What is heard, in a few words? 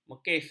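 "mekis", Malay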